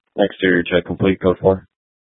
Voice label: Court Security Dispatch, scanner